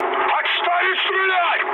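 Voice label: player friendly fire, mood med, Russian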